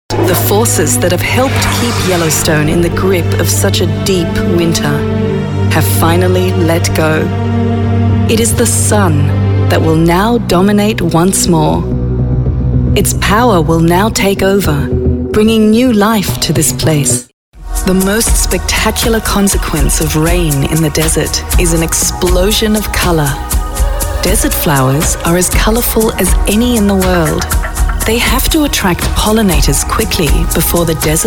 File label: Documentary Demo